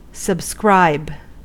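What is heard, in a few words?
"subscribe", US English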